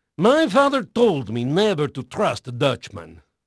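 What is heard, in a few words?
Portuguese male, voice; Pirates Of The Caribbean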